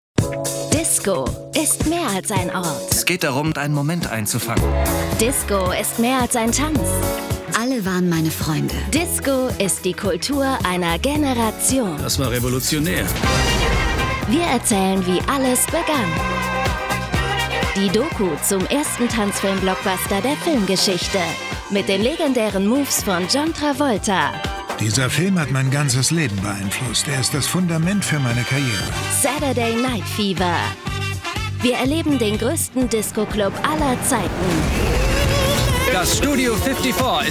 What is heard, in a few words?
Voiceover Trailer; ARD Mediathek; Disco